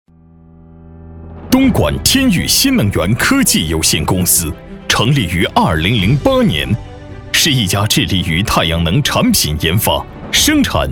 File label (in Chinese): 男 专题 企业; 天昱新能源